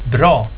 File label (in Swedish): "bra", swedish